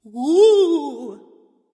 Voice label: spooky; cupid

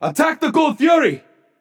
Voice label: vox attack; smite; raijin; voicepack

